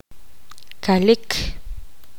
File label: poqomam; liso